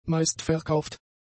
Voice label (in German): "meistverkauft", German; adjectives